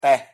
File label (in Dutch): "teh", Indonesisch; thee-in-andere-talen